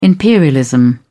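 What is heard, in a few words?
"imperialism", British English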